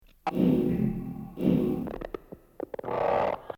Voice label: telephone voice